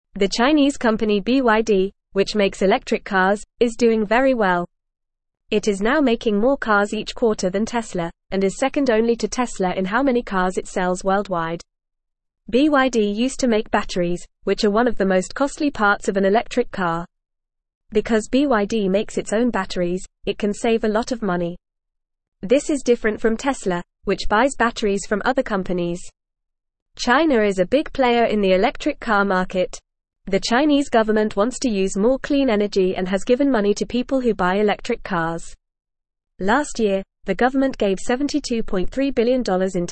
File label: English Newsroom Lower Intermediate FAST Reading